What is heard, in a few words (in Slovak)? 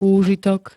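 "úžitok", Slovak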